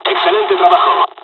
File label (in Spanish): dialogs spanish; War Thunder